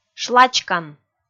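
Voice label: "шлачкан", Komi, female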